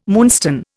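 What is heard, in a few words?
"moonstone", Indian English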